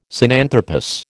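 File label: "Sinanthropus", American English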